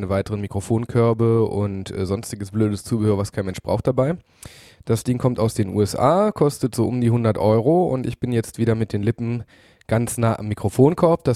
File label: Heil, vocal mics